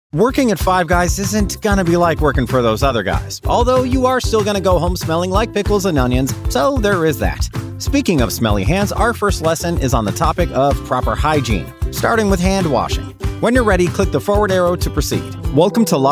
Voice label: e-learning